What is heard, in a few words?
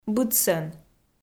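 "быдсӧн", Komi, female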